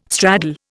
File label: "straddle", Indian